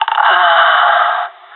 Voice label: death, pirate; Counter Strike Model Pack; CS:GO